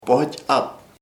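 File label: bearcub osezno, Chamacoco word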